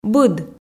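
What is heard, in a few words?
"быд", Komi, female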